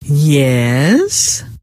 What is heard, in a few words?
"yes", scientist female